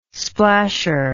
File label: "splasher", English